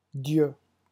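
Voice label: "dieu", French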